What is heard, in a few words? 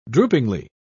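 "droopingly", British English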